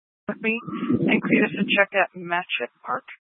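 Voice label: Incident Tactical, scanner audio recording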